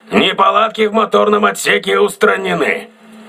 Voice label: voice message engine room repaired, crew ships russian